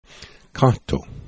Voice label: "kato", esperanto